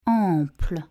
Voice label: "ample", French; nasal

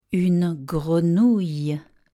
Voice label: "une grenouille", French

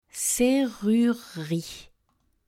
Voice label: "serrurerie", French

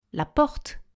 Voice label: "porte" French; house in french

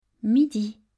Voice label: "midi", French; time in french

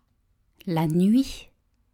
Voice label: "nuit", French; goodnight

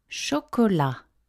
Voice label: "chocolat", French

